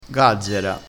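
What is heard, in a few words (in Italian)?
"gazzera", dialettale crespinese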